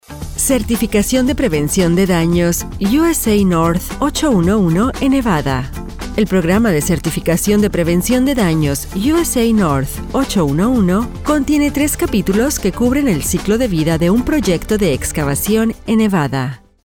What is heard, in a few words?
spanish latin american e-learning